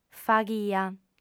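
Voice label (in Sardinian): "faghia", female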